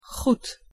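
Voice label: "goed", Dutch; język niderlandzki podstawowe zwroty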